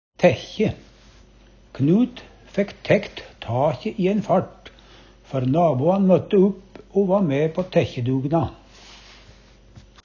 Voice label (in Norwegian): tækkje, Numedalsmål; Knut fækk tækt takje i æin fart, før naboan møtte upp o va mæ på tækkjedugna.